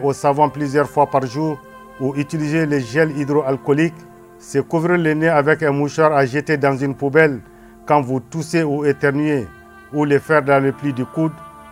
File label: COVID 19 RADIO FRANCAIS, MALI